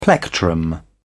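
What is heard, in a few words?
"plectrum", British English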